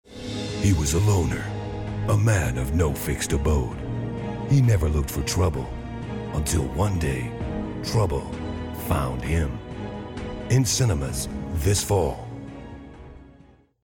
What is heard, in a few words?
American Trailer